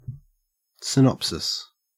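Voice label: "synopsis", Australian English; pronunciation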